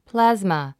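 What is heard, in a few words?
"plasma", 英単語 発音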